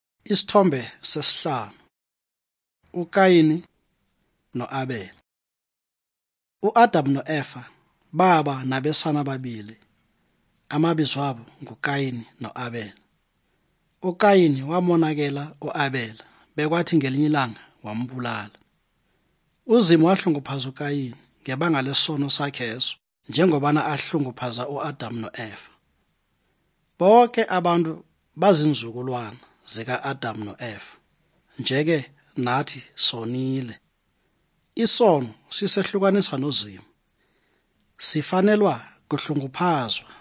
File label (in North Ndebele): good news ndebele southern, drama